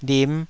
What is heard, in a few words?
"lim5", Taiwanese syllable